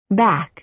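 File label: "back", American English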